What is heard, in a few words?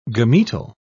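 "gametal", English, British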